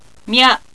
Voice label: "mya", Japanese